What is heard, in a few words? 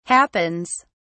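"happens", British English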